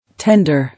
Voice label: "Tender", English